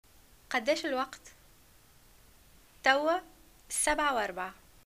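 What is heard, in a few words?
what time is it, Arabic, Tunisia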